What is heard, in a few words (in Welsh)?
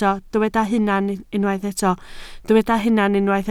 "hunan" Welsh